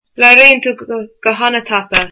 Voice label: you speak very fast, irish